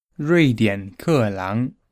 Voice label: "rui dian ke lang", Mandarin